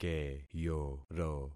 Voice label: "keyoro", concat syllables; Affixing experiment